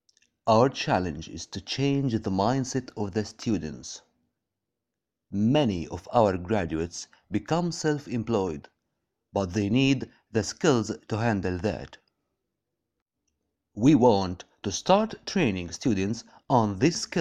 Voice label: presentation enterprenur

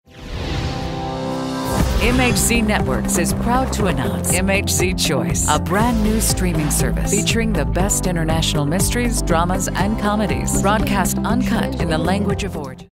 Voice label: TV Sample

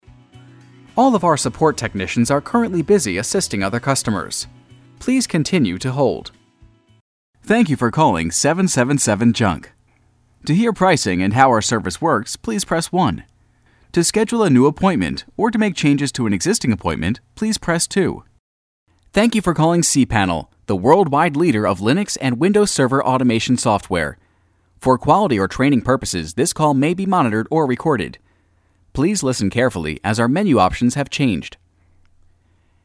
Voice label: Phone IVR

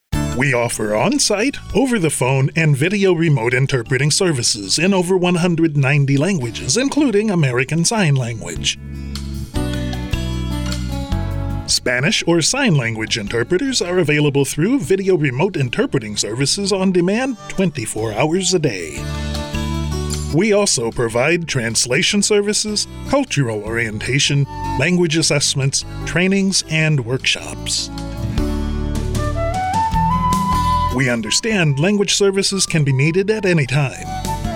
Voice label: On Hold

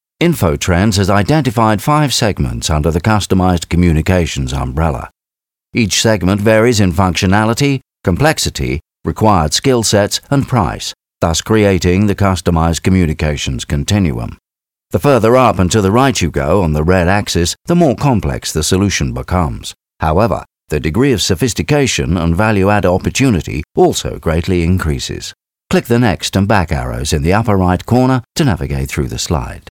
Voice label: British

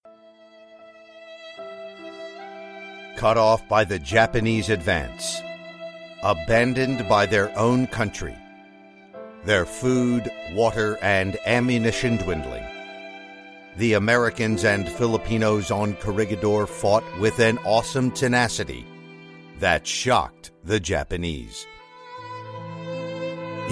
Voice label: Documentary Film Narration

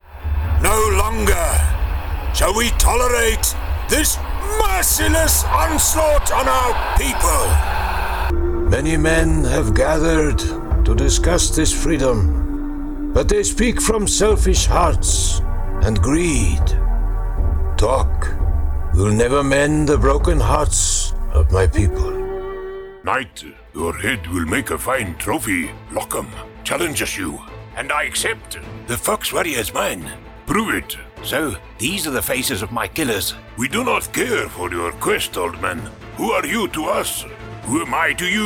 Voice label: Convincing Character Voices

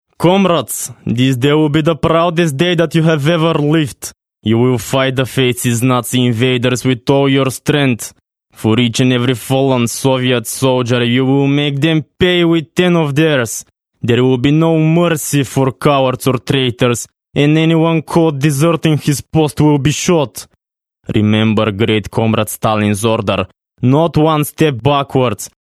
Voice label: russian accent

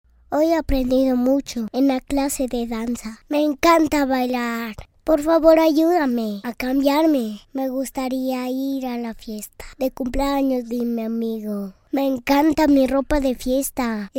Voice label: Child 5 years old

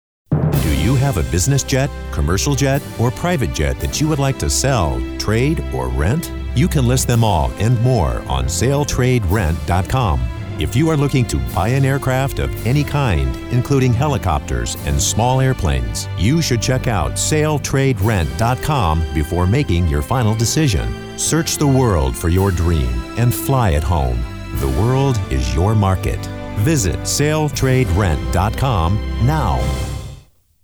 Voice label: Spot; SaleTradeRent

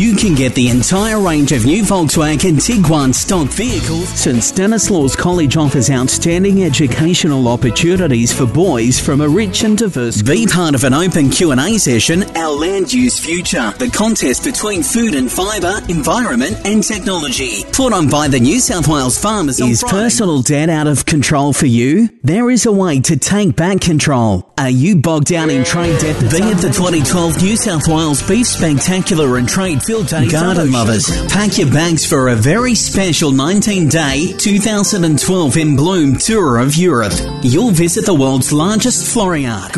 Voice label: Medium Sell Commercials

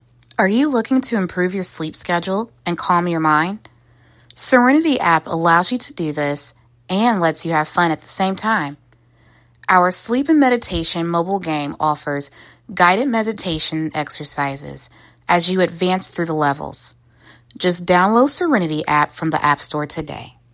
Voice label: Commercial calm

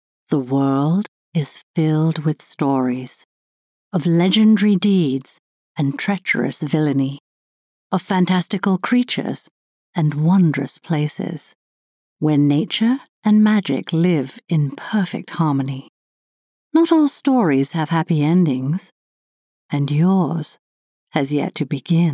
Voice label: Trailer

